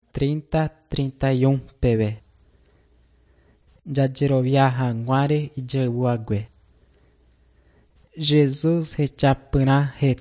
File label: john guarani mbya, bible